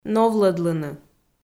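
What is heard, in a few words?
"новлӧдлыны", Komi, female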